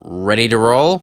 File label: Game Start Arcade Machine; Warframe; 1999; Ollies Crash Course